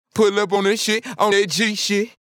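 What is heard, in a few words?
rap with pitchcure only g note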